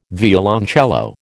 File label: "violoncello", American